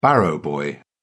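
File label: "barrow-boy", British English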